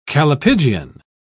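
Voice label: "callipygian", British English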